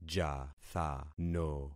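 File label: "jathano", concatenated syllables; Affixing experiment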